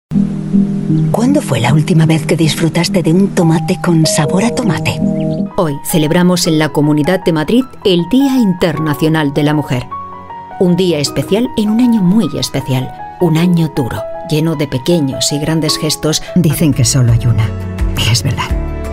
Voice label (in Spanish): Spanish European